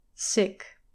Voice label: "sick", English

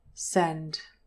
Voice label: "send", English pronunciation

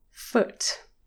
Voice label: "foot", English

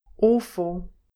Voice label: "awful", english pronunciation

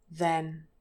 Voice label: "then", English pronunciation

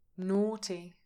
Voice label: "naughty", english pronunciation

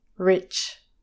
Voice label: "rich", english pronunciation

